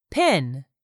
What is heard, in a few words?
"pin", English; 3000 words